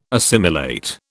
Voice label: "assimilate", British

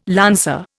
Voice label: "lancer", Indian

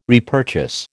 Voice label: "repurchase", American

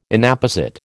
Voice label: "Inapposite", American

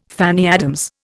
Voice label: "Fanny Adams", Indian

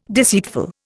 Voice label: "deceitful", Indian

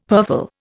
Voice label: "purfle", Indian; Dictionary

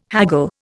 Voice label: "haggle", Indian

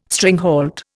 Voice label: "stringhalt", Indian

